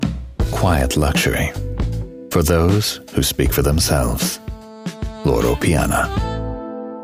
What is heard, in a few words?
Commercial; Loro Piana